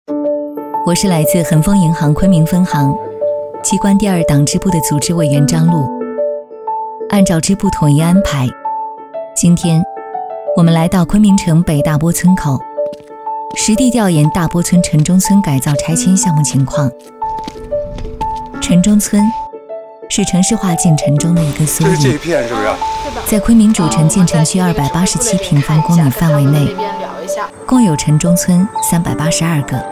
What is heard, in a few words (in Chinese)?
女 宣传片; 昆明恒丰银行